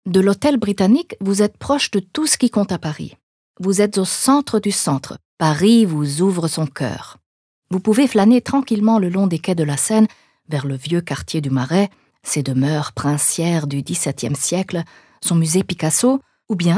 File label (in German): FR Kommentar Neutral